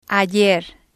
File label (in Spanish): "ayer", spanish